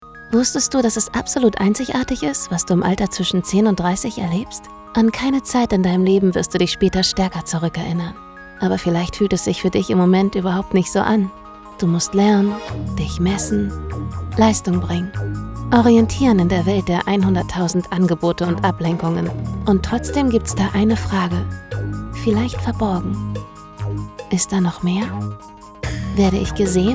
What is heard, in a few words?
Voiceover; CVJM